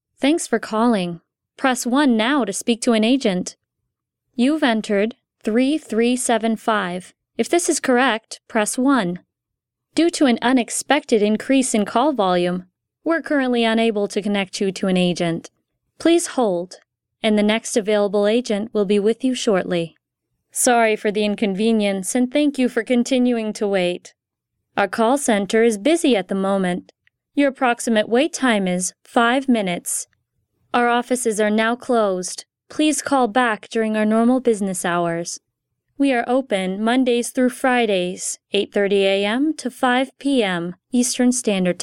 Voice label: Phone, narrators voice sample